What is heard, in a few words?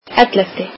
"eleventh", Icelandic